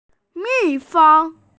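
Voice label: "bee", Mandarin; mi4feng1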